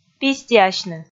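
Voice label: "пистиасьны", Komi, female; audio dict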